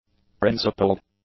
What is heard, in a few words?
"principled", english; adjectives